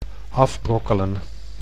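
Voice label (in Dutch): "afbrokkelen", Dutch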